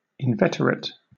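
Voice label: "inveterate", British English; pronunciations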